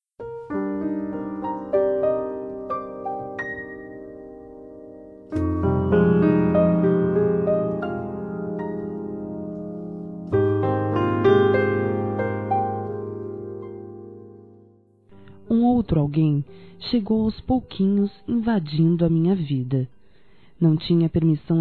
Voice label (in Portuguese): Telemensagens Amor Fem; TE PERDI(F)